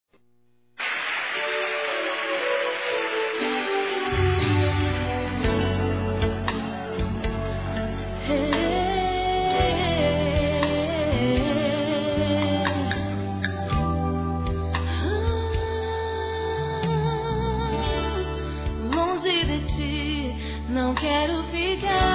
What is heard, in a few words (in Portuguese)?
Aniversário Pai Evangelico Feminino; Aniversários